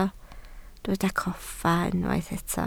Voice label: "coffa", Welsh